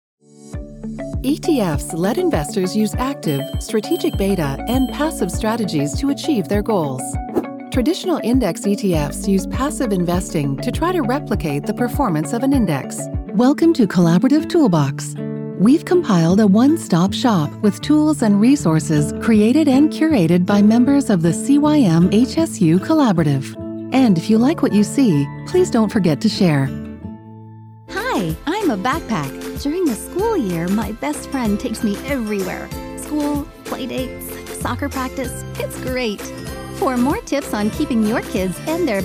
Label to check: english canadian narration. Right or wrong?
Right